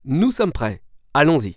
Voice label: Start Drive, french; waze